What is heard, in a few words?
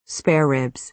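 "spare ribs", American English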